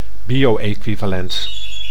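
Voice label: "bio-equivalent", Dutch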